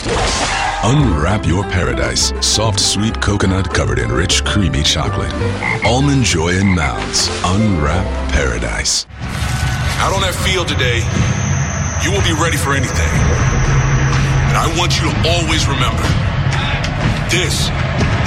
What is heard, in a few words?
Commercial